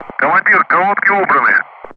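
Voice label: Speech Sound Russian Common Ground Crew Messages; DCS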